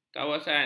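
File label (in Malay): "kawasan", Malay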